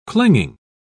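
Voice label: "clinging", British English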